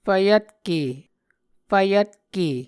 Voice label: "fvyvtke", muscogee